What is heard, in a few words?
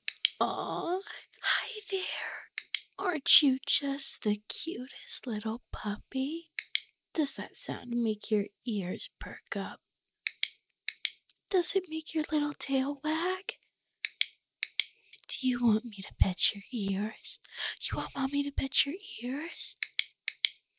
Praise And Clicks, Soft; From Your Personal Mommy; F4A; Puppy Play